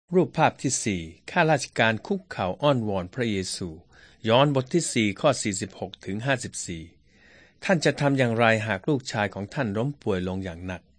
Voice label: thai central